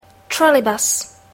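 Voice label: "trolleybus", English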